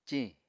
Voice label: "ciñ", Apatani